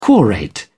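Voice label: "quorate", English, uk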